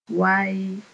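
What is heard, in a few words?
"wayy", Mooré; expression aiming to dissuade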